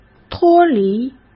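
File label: "脱离", Mandarin Chinese; chinese-flashcards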